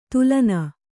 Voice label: "ದಲನ", Kannada

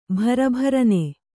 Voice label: "ಬಿರಬಿರನೆ", Kannada

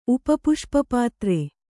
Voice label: "ಉಪಪುಷ್ಪಪಾತ್ರೆ", Kannada

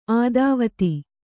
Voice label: "ಆದಾವತಿ", Kannada

